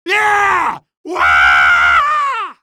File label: cheer, male; coast; odessa